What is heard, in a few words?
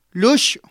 "luxu", Totonaco; pañuelo